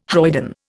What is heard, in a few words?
"hoyden", Indian